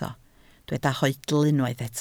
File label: "hoedl", Welsh